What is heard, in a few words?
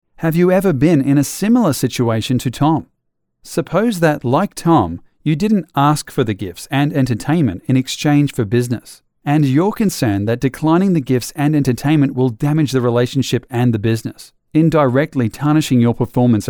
english australian narration